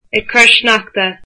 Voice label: "snowy", Irish